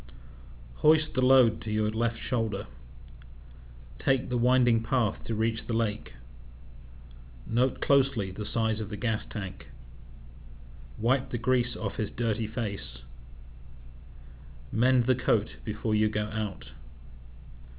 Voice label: british, open speech; uk